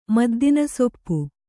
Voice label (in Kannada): "ಮದ್ದಿನಸೊಪ್ಪು", Kannada